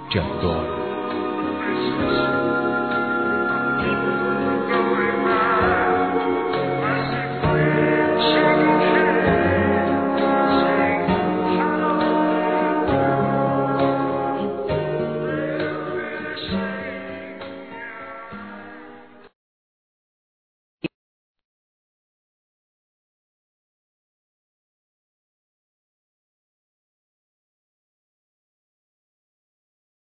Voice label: Amizade, Telemensagens, Masc

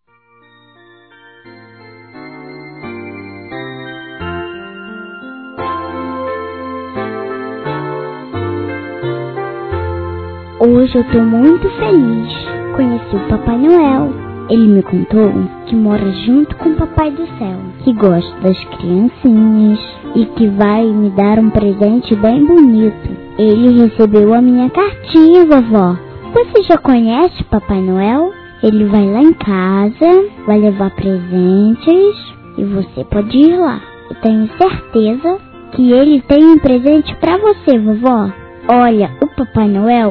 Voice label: Natal PARA Avó Feminino; Telemensagens; Natal e Ano novo

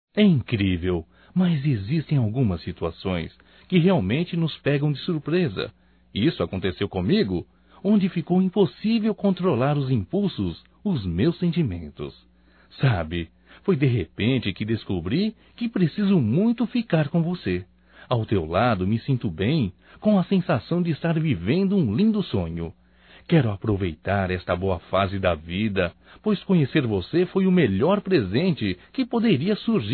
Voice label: FICANDO, Românticas, masc

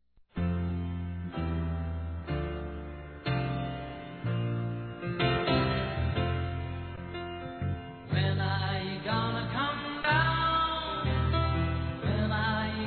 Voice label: BOM DIA ROMANTICO, fem

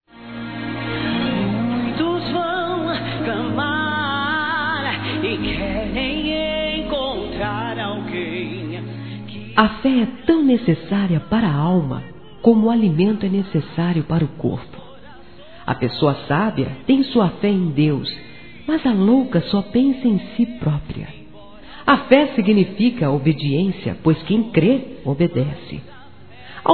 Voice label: Evangélico Aniversário Filha Feminino; Aniversários

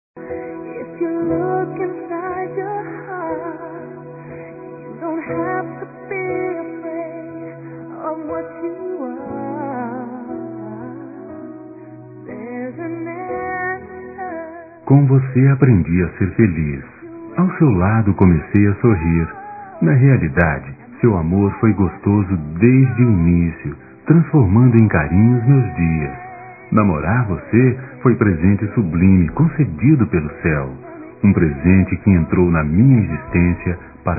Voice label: NEUTRA M; Telemensagens; Dia Dos namorados; masc; Telemensagens 2017

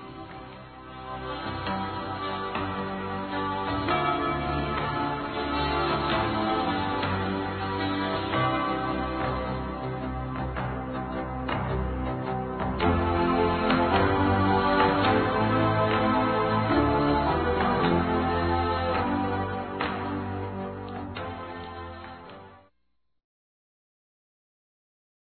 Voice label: NATAL MAMÃE ADOLESCENTE M, Telemensagens; Natal e Ano novo

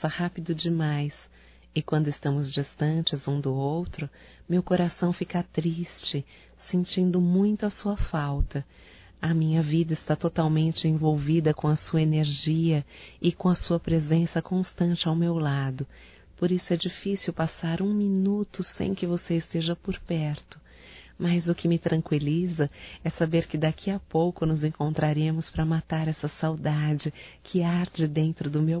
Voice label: SAUDADES(F), Românticas fem

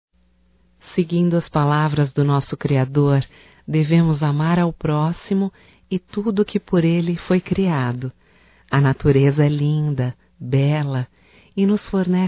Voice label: HOMENAGEM AO VETERINARIO (F), Só Voz; Telemensagens; HOMENAGENS

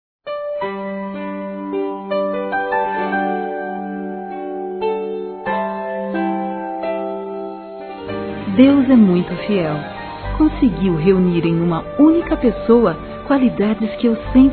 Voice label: DECLARAÇÃO F, Telemensagens Gospel fem; Telemensagens 2017

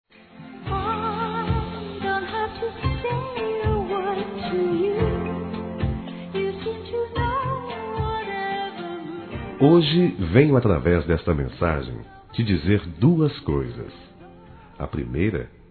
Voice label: AGRADEC. NEUTRO M

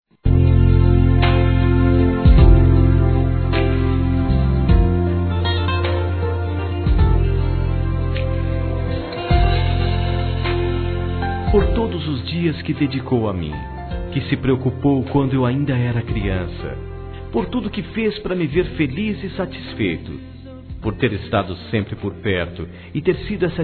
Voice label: Aniversário Tia Masculino; Aniversários; Aniv Familiar

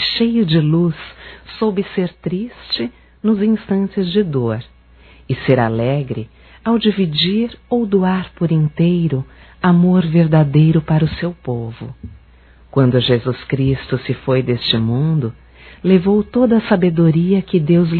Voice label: Páscoa Geral Feminino; Telemensagens 2017

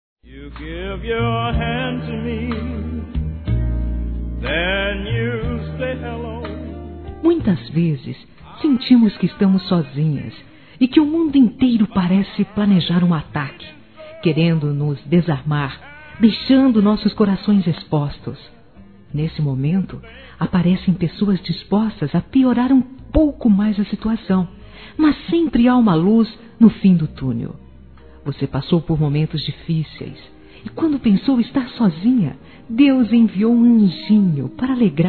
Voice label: AMIGA P MÃE PAI F, Telemensagens; Telemensagens 2017

